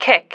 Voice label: "kick", female